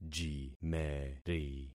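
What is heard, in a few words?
"jimeri", concatenated syllables; Affixing experiment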